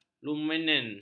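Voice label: "lumen", Malay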